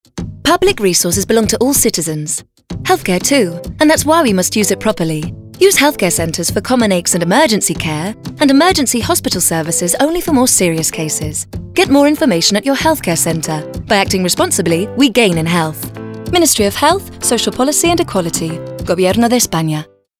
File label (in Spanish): SANIDAD RADIO ING; serviciosSanitarios